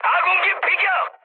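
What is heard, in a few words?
Korean aircraft destroyed mood high, speech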